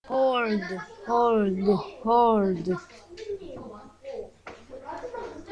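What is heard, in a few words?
"hold", spoken word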